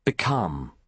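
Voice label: "become", British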